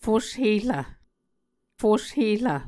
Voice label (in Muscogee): "fusherv", Muscogee